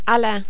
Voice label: "Alain", French